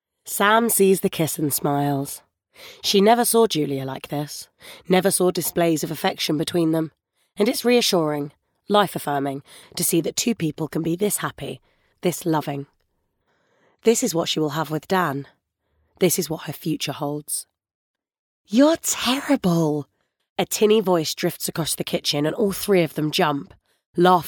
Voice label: Audiobook; Babyville